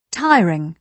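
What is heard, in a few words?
"tiring", British English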